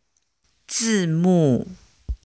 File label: chinese pronunciation zi ci si